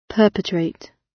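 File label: "perpetrate", British; Crime and punishment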